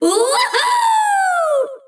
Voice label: Troll VO Success